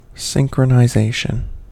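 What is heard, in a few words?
"synchronization", American English; pronunciation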